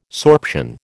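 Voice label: "sorption", American English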